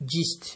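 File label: "jist", Nigerian Pidgin, male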